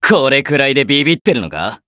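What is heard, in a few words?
victory, Japanese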